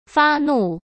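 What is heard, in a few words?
"发怒" Mandarin Chinese; hsk; chinese-flashcards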